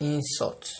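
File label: "insult", Nigerian Pidgin, male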